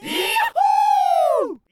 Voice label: mens yahoo

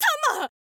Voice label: thief boy guard, voice

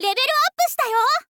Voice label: "level up shita yo", info girl voice

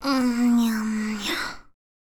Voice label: line girl munyamunya

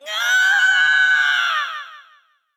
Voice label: thief boy death

